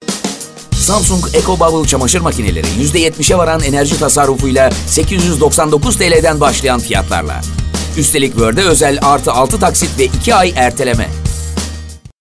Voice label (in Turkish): kampanya, kurumsal imaj